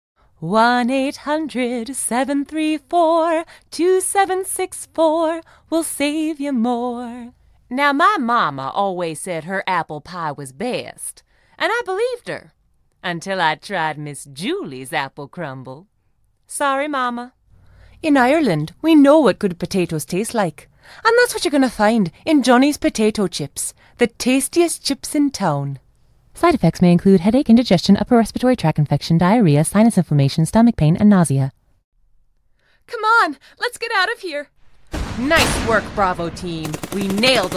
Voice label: 外籍英女 介绍视频

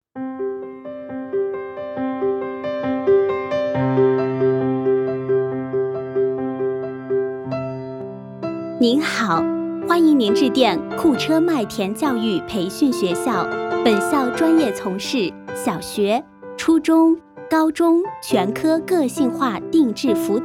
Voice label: 女 彩铃 音乐 教育学校

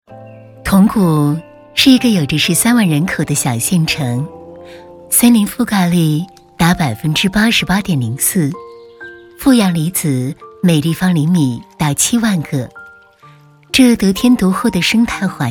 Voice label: 女 茶 铜鼓白茶

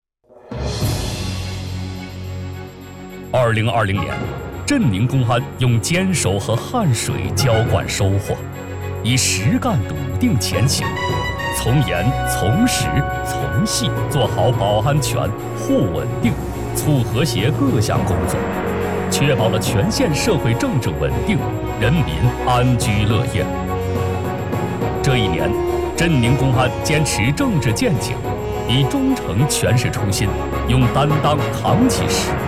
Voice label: 男 汇报 严肃整齐权威 政务部门宣传汇报; 镇宁公安

